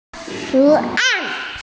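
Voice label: "pattern", Mandarin Chinese, female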